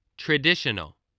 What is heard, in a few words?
"traditional", voice